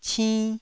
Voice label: "cinn1", taiwanese